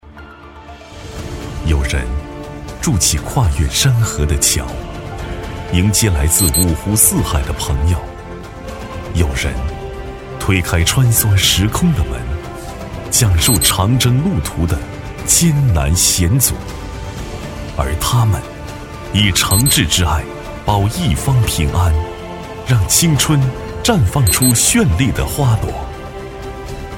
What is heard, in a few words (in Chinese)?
男 大气讲述; 新国安